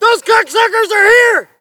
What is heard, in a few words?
spot; bf3